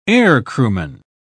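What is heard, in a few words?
"aircrewman", British English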